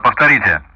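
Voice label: Speech Russian; Common Player Messages repeat; DCS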